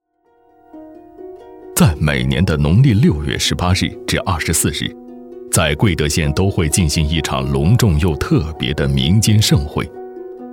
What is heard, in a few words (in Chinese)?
男 纪录片